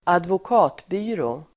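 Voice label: "advokatbyrå", Swedish; Swedish pronunciation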